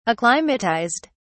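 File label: "acclimatised", British English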